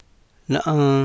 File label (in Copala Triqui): "na'vi̱j", Copala Triqui